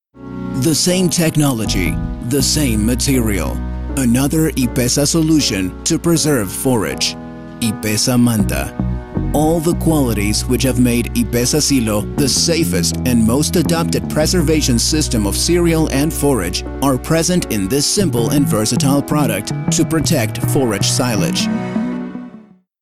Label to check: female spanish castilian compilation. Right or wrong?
Wrong